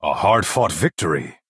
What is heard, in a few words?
Breton male good game